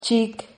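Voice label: "chic", Spanish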